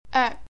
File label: "oek", Cantonese